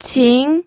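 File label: "qing", Mandarin Chinese, second tone; pinyin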